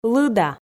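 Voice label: "лыда", Komi, female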